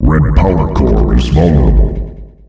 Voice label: Red PowerCore vulnerable, announcer male; Unreal Tournament; ut2004